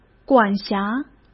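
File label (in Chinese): "管辖", Mandarin Chinese; hsk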